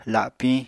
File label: "lapiñ", Apatani